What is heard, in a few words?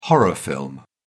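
"horror film", British English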